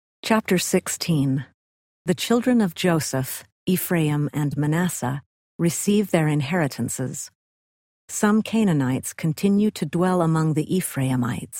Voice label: male voice, eng; the old testament

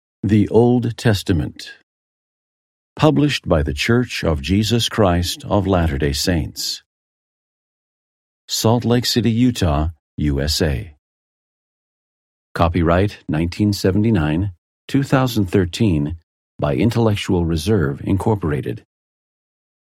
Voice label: male voice, eng; old testament title page; the old testament

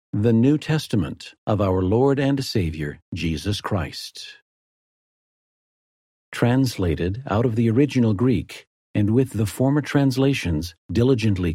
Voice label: male voice; title page, eng; the new testament

